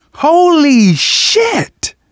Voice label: violence awe, survivor voice manager; Left 4 Dead 2; left4dead2 dlc3

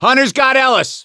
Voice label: hunter pounced, voice; ellis; Left 4 Dead 2; survivor; gambler

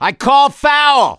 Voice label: reaction negative, voice; gambler

